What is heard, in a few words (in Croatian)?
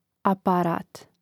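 "aparat", Croatian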